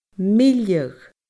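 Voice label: "milleadh", Scottish Gaelic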